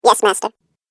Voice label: Cartoon Game Voice Yes Master